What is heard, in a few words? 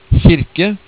"kirke", Norwegian